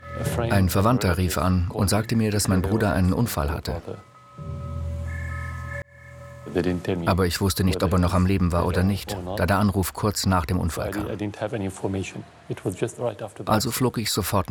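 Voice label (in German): VoiceOver, Sprecher, Männer; House of Assad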